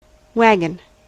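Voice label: "wagon", US English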